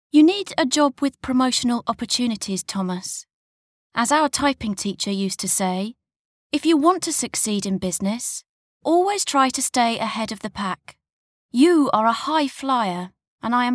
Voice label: locutora inglesa

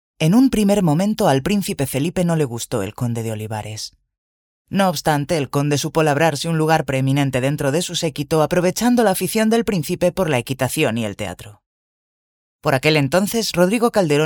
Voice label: locutora spanish voiceover

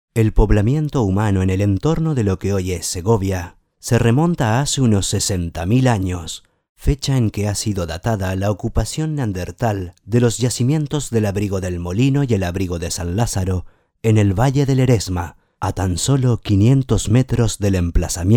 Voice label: locutor canario voiceover

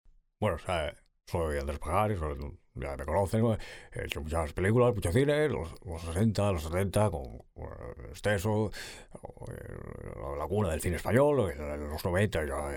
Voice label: imitacion pajares locutor; imitación de Andrés Pajares

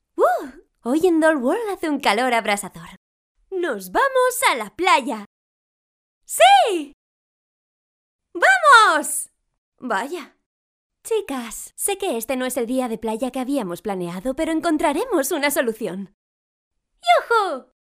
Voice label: locutora dibujos animados

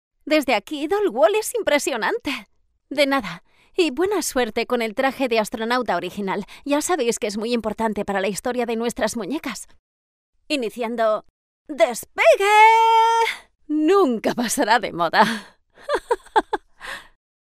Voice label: locutora infantil doblaje dibujos

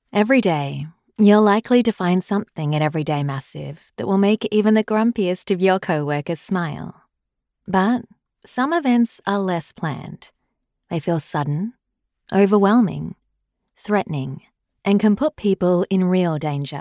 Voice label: locutora australiana english australian voiceover; Australian female voice over

